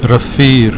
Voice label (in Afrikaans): "rivier", afrikaans